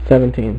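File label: "seventeen", male; MacCribbage; InterStellar MacCribbage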